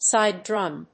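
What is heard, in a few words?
"side drum", English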